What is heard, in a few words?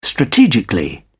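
"strategically", British English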